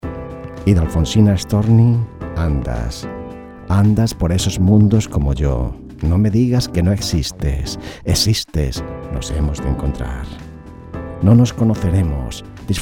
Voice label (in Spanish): poema romantico; alfonsina storni; andas